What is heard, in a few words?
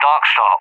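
"Darkstar", English, UK; CallSign; DCS; Allied Flight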